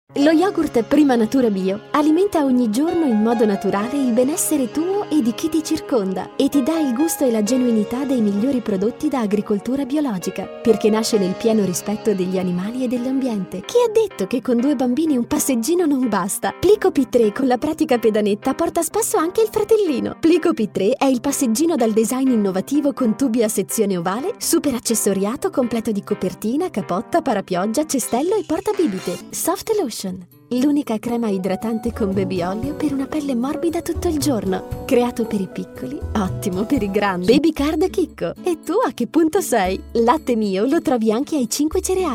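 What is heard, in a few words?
Italian, female, commercial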